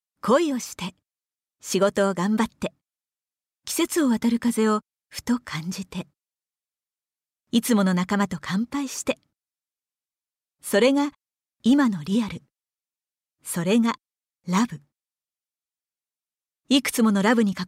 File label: narration